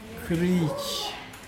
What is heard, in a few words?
"body fat", Mokilese